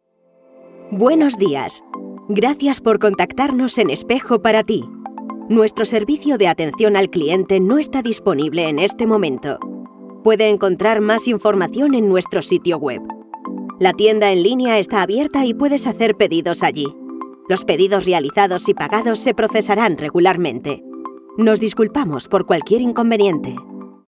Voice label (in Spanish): ivr